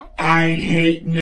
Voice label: robot saying n